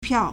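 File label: "piao4", Mandarin Chinese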